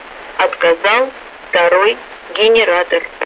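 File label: Russian speech, Mi-8MT RI65; Messages second generator failure; DCS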